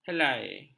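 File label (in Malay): "helai", Malay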